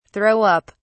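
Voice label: "throw up", British English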